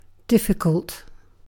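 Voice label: "difficult", British English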